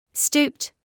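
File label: "stooped" English, British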